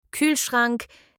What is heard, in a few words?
refrigerator in german